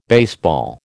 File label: "baseball", American